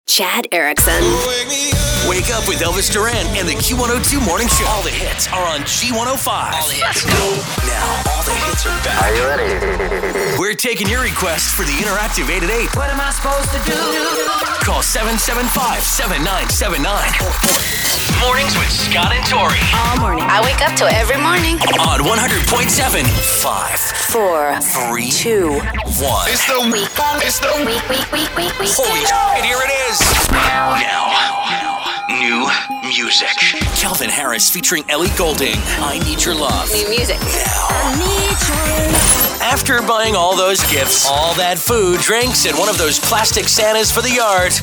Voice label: radio chr modern; radio imaging